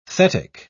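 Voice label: "thetic", British English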